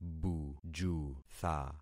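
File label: "bujutha", concatenated syllables; Affixing experiment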